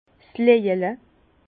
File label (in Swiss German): "lojele", Bas Rhin; vin; gourde en bois